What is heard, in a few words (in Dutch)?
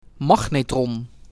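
"Magnetron", Dutch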